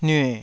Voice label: "nyue3", taiwanese

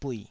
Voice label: "buih3", taiwanese syllables

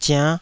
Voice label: "ziann5", taiwanese

